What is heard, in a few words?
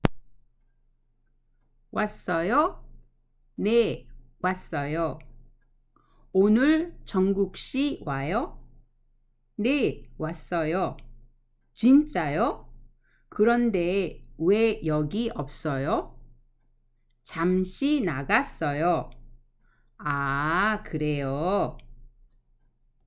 Conversation; K-Pop Since 2018